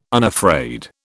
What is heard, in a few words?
unafraid, British